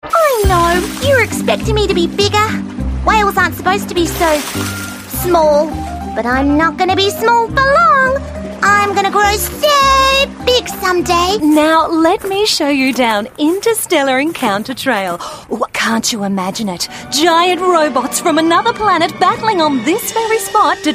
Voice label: english australian animation; australische Sprecherin